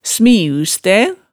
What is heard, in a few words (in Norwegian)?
"smiustæ", hemsedalsmaalet